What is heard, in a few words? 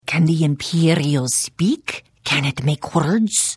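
Hello, Khajiit female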